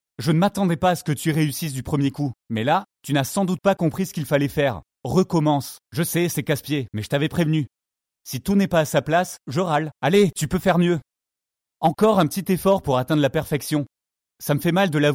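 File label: french male; lektoring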